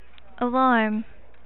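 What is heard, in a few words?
"alarm", USA, female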